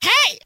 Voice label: "hey", Voice